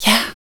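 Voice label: vocal female, Dance Vocals, spoken words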